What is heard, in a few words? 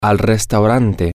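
Spanish, male; to The Restaurant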